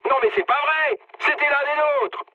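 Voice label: French player killed ally mood high; War Thunder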